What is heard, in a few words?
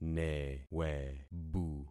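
"newebu", concatenated syllables; Affixing experiment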